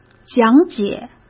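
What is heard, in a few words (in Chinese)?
"讲解", Mandarin; chinese-flashcards; hsk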